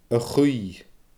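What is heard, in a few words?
"a-chaoidh", Scottish Gaelic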